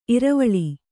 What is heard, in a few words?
"ಇರ್ವಲ", Kannada